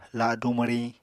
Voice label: "ladu mwriñ", Apatani